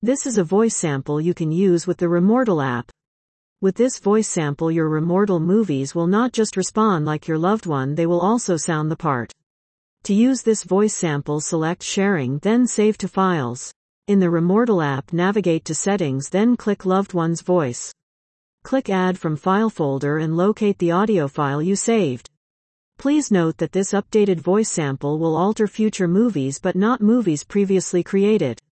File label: us female